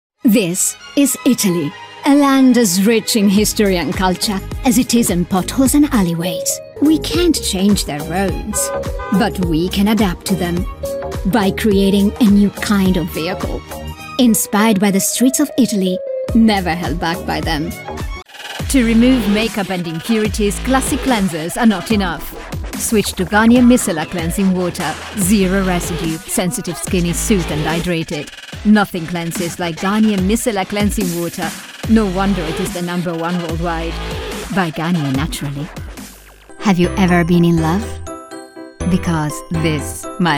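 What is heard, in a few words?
Italian, Commercial, accented English; GoLocalise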